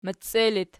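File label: "meccie" locative, Archi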